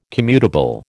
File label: "commutable", American